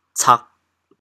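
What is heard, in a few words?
"cag4", Teochew, chaoyang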